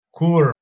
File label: "kur" Albanian